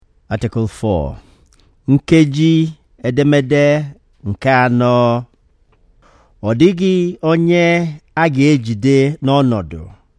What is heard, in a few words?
Igbo